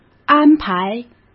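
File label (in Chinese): "安排", Mandarin; chinese-flashcards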